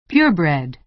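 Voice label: "purebred", American English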